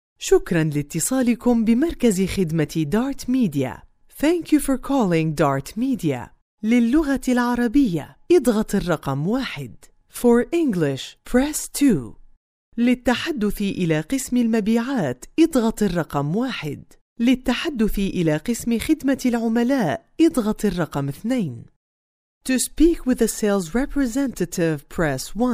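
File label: arabic female narration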